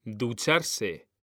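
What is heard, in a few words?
"DUCHARSE", Spanish; mekanta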